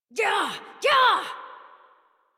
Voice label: Horse Sprint Adult; Warframe; Duviri